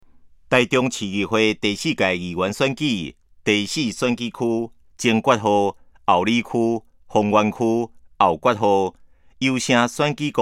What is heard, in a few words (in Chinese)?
前言, 台語; 臺中市; 市議員; 第04選區; 有聲公報